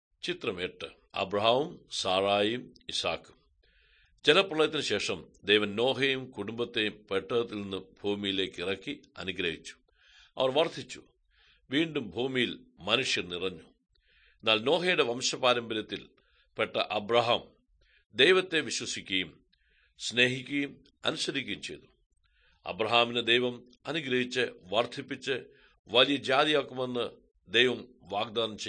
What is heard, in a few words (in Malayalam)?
good news malayalam, drama